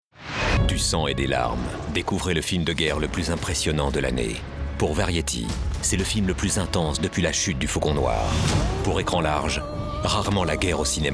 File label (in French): spot radio